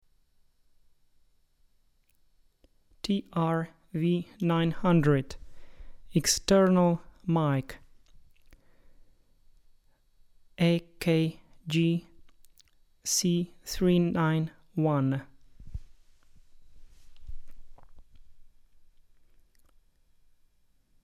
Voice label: AKG C391 voice; trv900